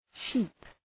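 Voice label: "sheep" English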